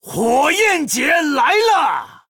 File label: Male Troll FIRE FESTIVAL, zh